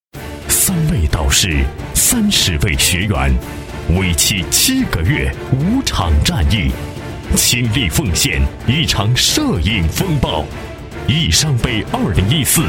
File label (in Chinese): 激情震撼; 开场; 湖南摄影挑战比赛